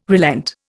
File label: "relent", Indian